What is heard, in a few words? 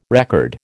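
"record", American English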